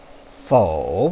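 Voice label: "fou", Mandarin Chinese, third tone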